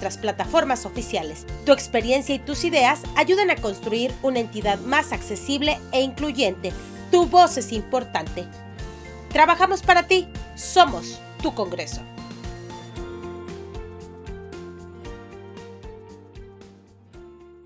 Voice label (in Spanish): spot consulta autismo